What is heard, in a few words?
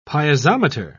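"piezometer", British English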